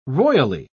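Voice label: "roily", British English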